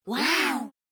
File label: Kids Say "Wow"